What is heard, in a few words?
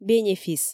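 "бенефис" Komi, female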